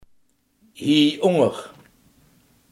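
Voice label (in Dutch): hieronder, Limburgse gesproken woordenboeken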